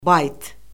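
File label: "bayt", Azerbaijani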